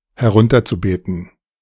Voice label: "herunterzubeten", De